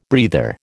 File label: "breather", American